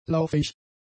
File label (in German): "lauffähig", German; adjectives